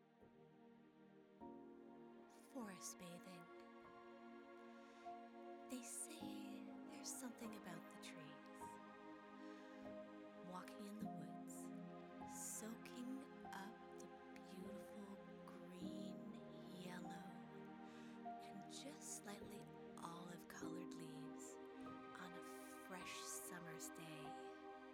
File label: AMSR meditation forestbathing; English (North American)